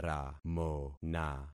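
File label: "ramona", concatenated syllables; Affixing experiment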